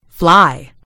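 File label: "fly", British English; gcompris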